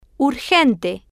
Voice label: "urgente", spanish

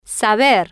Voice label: "saber", spanish

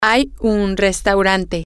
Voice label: There Is A Restaurant F, spanish

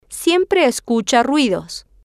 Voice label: siempre escucha ruidos, spanish

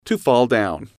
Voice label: "to Fall Down", English

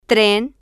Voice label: "tren", Spanish

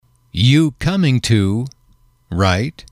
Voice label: "Restaurant Two", English

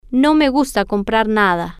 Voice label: I Don't Like To Buy Anything F, spanish

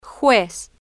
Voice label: "juez", spanish

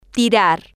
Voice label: "tirar", spanish

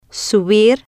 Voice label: "subir", spanish

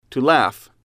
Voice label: "to Laugh", English

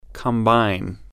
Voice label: "combine", English

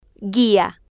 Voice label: "guia", Spanish

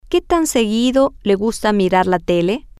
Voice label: sp how Often Does She Like To Watch TV, F

